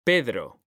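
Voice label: "pedro", Spanish; mekanta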